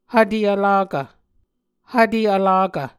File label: "hvte vlakv", Muscogee